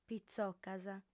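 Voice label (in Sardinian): "pitzocas", female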